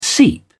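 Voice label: "seep", British English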